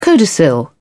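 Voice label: "codicil", English, British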